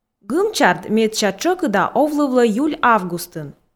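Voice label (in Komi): гым-чард медся тшӧкыда овлывлӧ июль-августын, Komi, female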